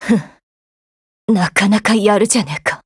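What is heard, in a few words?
DEAD Japanese, Voice; GF Alarm; Excutioner buhuo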